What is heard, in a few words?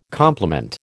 "complement", American